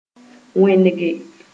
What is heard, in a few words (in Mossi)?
"wẽnege", Moore